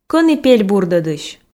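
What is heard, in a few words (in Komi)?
кӧні пель бурдӧдысь, Komi, female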